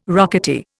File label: "rocketeer", Indian